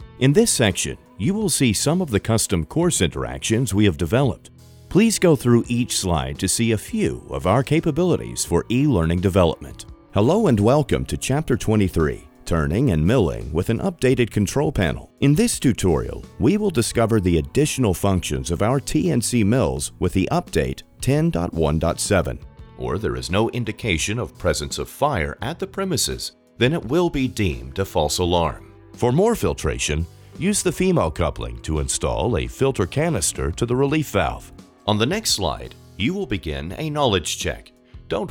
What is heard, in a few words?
Instructional